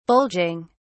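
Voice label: "bulging", British English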